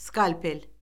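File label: "skalpel", Azerbaijani; Orfoqrafiya Lüğəti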